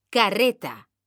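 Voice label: "carreta", Spanish, female; mekanta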